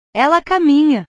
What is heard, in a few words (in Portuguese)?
"ela caminha", portugues